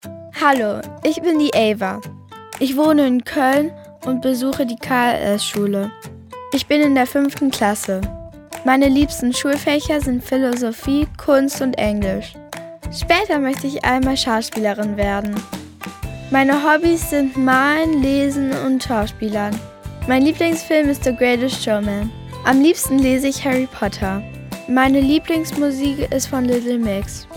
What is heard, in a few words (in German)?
Selbstvorstellung DE